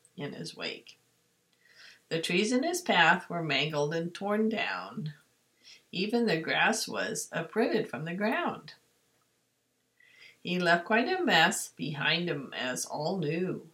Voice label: Magic Savanna Elephant, Hyenas, and Birds, readings; magic circle